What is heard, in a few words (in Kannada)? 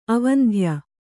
"ಅವಂಧ್ಯ", kannada